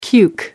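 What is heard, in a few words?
"cuke", British English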